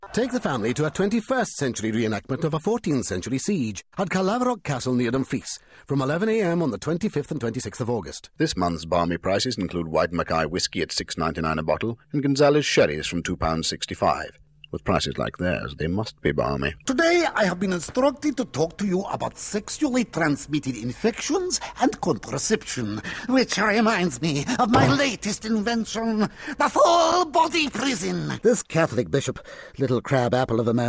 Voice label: commercials